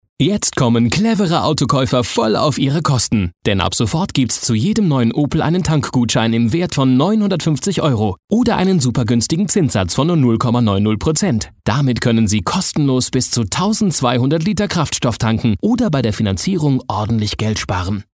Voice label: Fremdtake Neutral

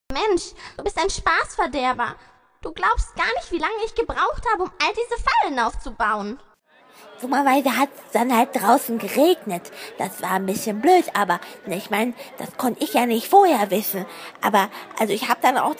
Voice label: Charge Comic

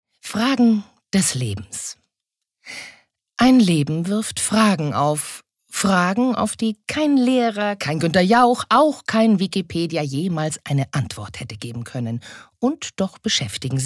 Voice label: Schauspiel Dynamisch

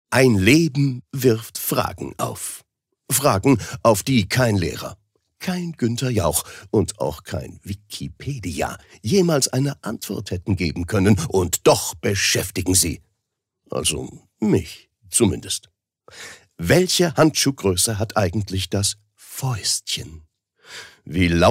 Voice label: Schauspiel Dynamisch

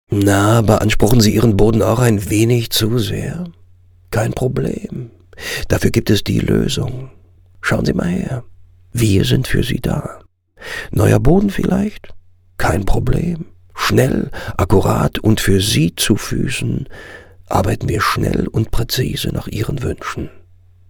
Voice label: W Fremdtake, Cool

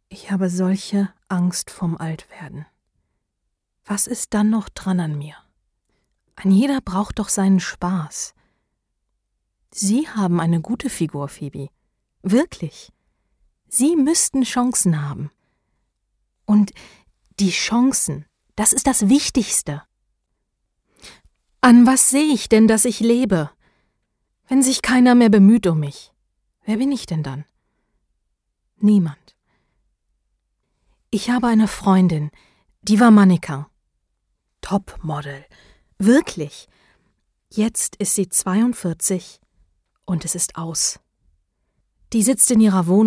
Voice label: Schauspiel Sanft

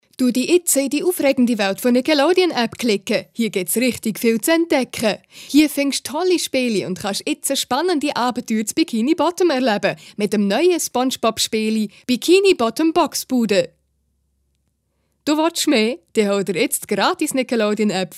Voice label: CH-D W Fremdtake Schwiitzerduetsch

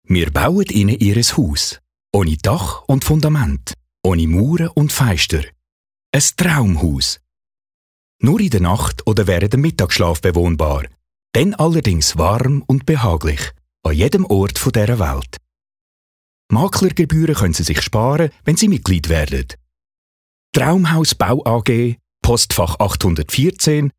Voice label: Schwiizerduetsch

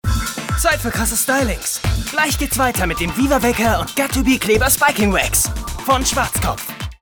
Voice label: Lebendig

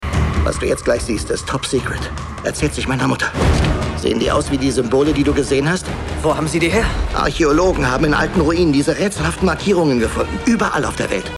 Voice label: Schauspiel Neutral

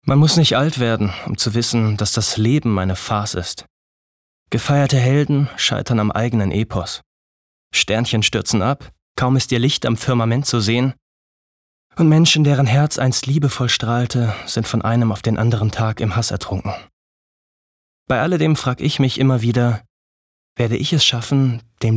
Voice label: Sanft; Weltschmerz

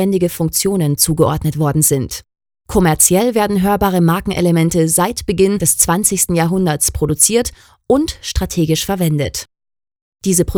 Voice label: Akustische Markenfuehrung Neutral

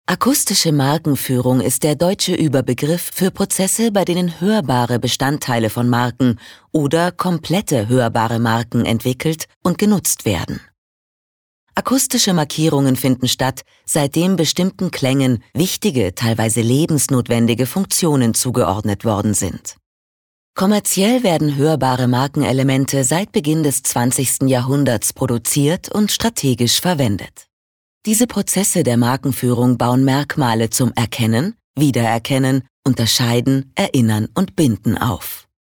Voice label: Neutral; Akustische Markenfuehrung

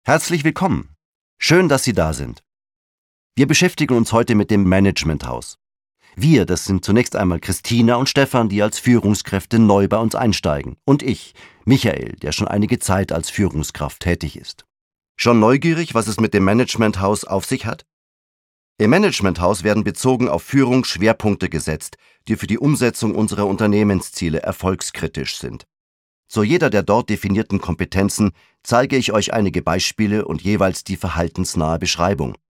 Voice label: Freundlich; Fremdtake

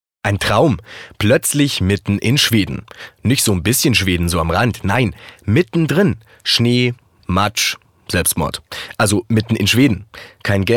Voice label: Schauspiel Neutral

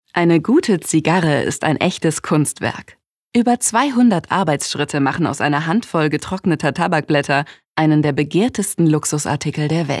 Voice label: Kommentar Freundlich

